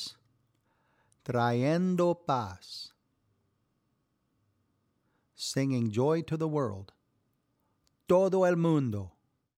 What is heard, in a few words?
La Navidad Pronunciation Guide